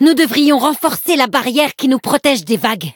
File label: levelup, fr; Cookie Run